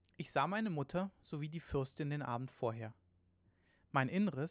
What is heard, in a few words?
male 8kHz